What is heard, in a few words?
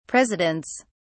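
"presidents", British English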